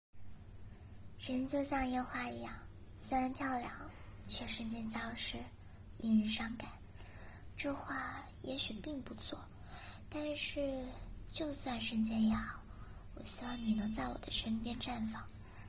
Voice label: 烟花, 女青年音，较幼态声线

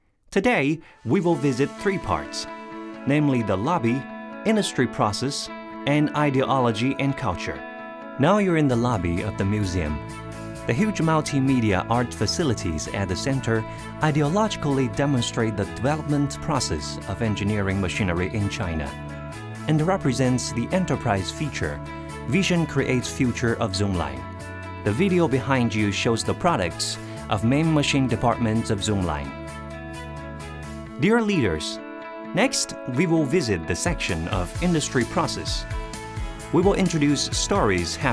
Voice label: 有伴奏 工程机械馆 导览词; 中联重科工程机械馆导览词

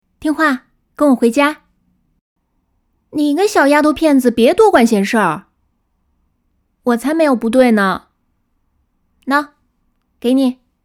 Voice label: 甜

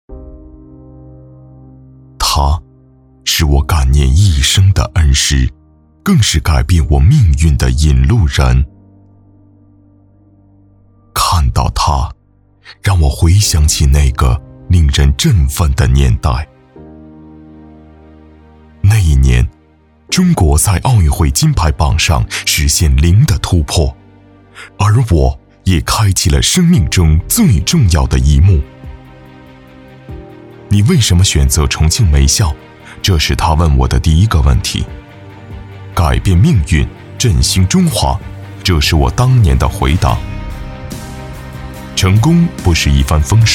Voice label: 专题走心独白感恩老师

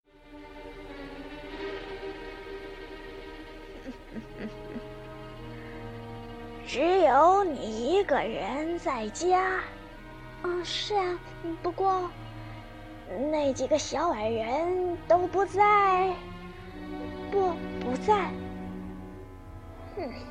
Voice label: 动画配音老奶奶和年轻小姑娘

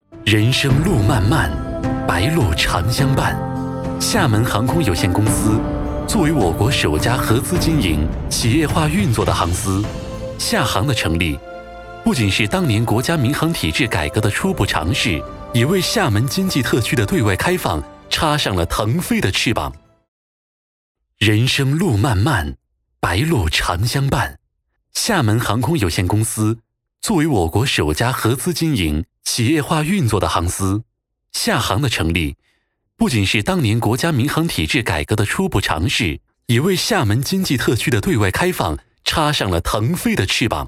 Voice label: 宣传 航空 浑厚

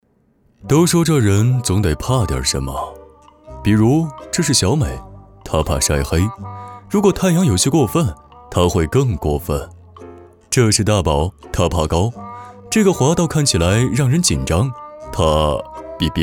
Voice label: 轻松幽默; 凡尔赛无畏

